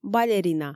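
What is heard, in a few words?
"балерина" Komi, female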